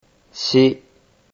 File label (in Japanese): "shi", Japanese